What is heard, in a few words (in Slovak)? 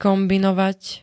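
"kombinovať", Slovak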